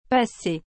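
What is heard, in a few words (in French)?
"passé", French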